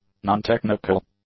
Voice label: "nontechnical", english; adjectives

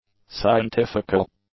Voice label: "scientifical", English; adjectives

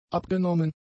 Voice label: "abgenommen", German; adjectives

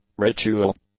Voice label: "ritual", English; adjectives

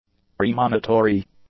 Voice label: "premonitory", english; adjectives

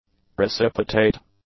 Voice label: "precipitate", english; adjectives

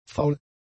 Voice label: "faul", German; adjectives

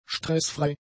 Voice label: "stressfrei", German; adjectives

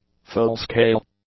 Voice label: "full-scale", English; adjectives

